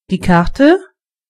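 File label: card, German voices words; gcompris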